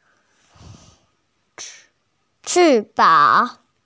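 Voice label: "chi bang", Mandarin Chinese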